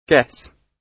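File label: "guess", British English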